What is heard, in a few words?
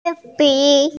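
"pencil", hearing impaired; qian1bi3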